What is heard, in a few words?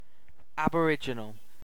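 "aboriginal", British English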